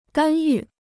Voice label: "干预", Chinese